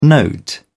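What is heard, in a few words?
"note", British English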